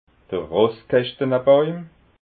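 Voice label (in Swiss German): "rosskaschtanabauim", Alsatian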